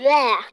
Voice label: "wah" kid; ooh ahh

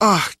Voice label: "uh", male

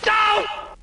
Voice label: "doh", voice; ooh ahh; homer

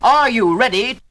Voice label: are you ready, british bloke; Voice; introductions

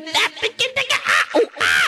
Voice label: at igga igga ay oh aye, Voice gibbrish

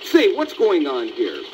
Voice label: "say what's going on here", male; questions

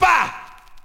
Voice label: "bah", male; ooh ahh

